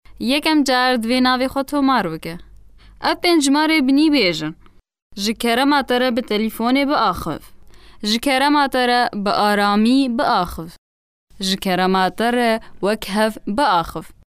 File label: Kurdish Kurmanji Female